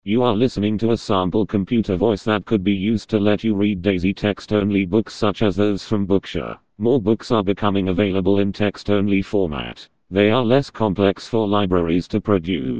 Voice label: English UK Male